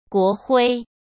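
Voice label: "国徽" Mandarin Chinese; hsk; chinese-flashcards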